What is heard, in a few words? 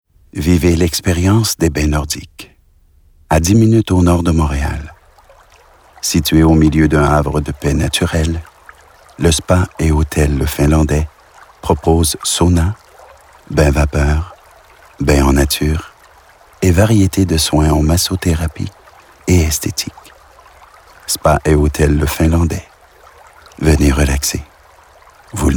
spa finlandais